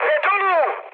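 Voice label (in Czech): Czech aircraft destroyed, mood medium; speech; War Thunder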